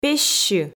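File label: "пессьы", Komi, female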